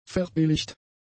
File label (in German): "verbilligt", German; adjectives